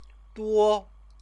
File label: "duo", Chinese; 多; many; adjective; stative verb